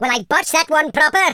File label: oops, Welsh; speech